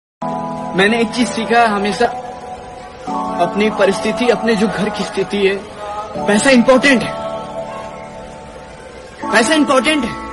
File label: Sad Dialogue; Paisa Important Hai